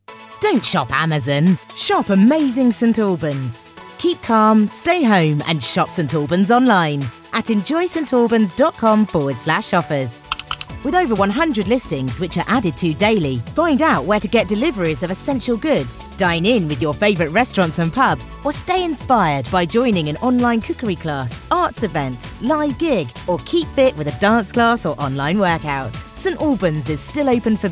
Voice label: female VO; Amazing Albans